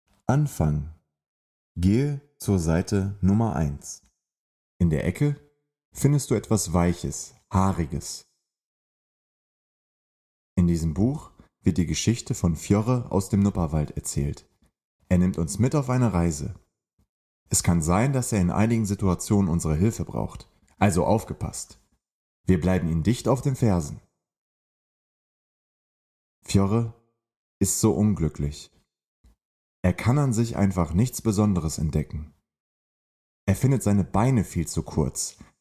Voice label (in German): Hoerbuch Kapitel1; ark verlag